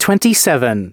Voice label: announcements male